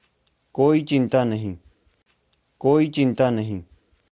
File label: No worries, punjabi